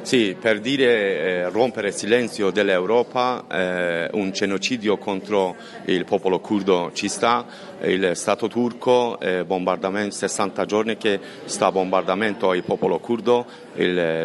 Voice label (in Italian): VOCI KURDISTAN